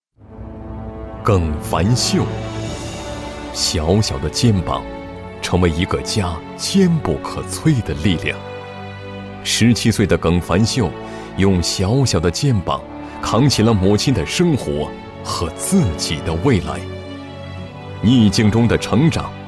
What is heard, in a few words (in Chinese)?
男 颁奖; 感动中国; 十大孝子事迹颁奖